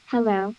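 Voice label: voice acting, es, female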